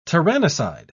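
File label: "tyrannicide", British English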